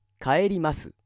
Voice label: male